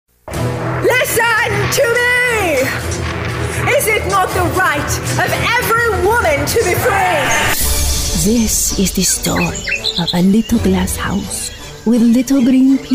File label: character